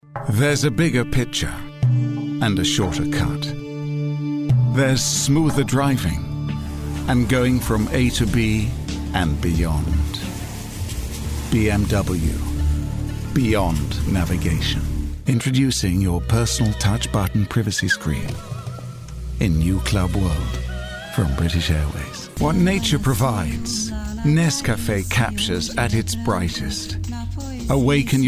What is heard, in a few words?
commercial